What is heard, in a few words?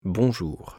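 "bonjour", French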